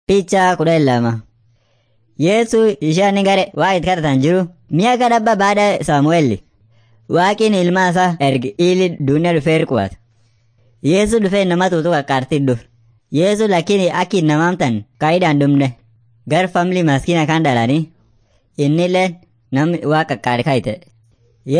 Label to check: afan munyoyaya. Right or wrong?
Right